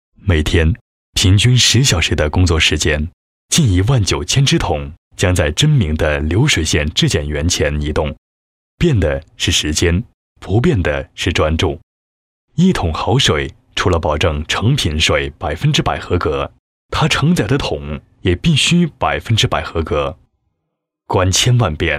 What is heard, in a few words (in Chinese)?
男 品牌宣传 宣传配音; 珍茗金龙水